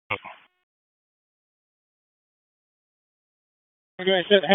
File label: FIRE OPS, p25